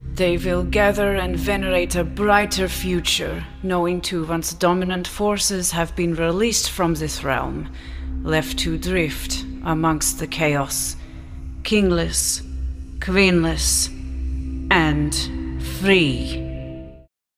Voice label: East European Commanding Sultry, eastern european character